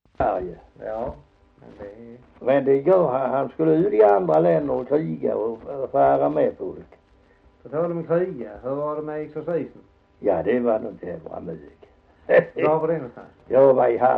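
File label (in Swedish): Hasslöv Halland, dialekter; Folkminnen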